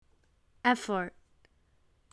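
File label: "effort", English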